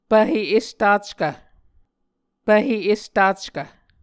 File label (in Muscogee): "pvhe estackv", Muscogee